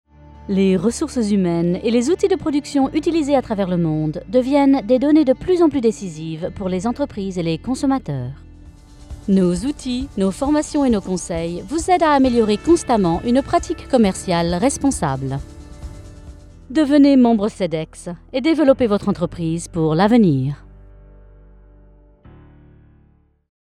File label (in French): French Commercial Advert; Sedex